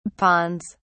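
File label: "ponds", British English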